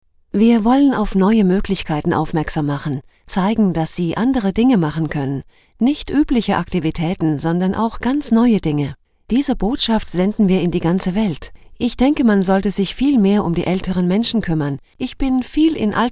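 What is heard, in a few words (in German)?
Kommentar, Freundlich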